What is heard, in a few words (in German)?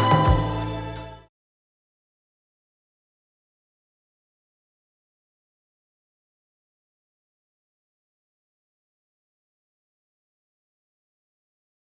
Werbung Suppe; LOFT VOICES